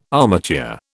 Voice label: "armature", British

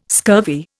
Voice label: "scurvy", Indian

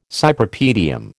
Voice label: "Cypripedium", American

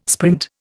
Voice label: "sprint", Indian

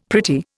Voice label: "pretty", Indian; Dictionary

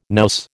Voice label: "nous", American

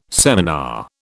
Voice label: "seminar", British

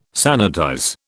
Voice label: "sanitize", British

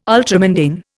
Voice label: "ultramundane", Indian

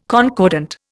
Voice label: "concordant", Indian

